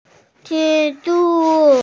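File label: "zhi1zhu1", Mandarin Chinese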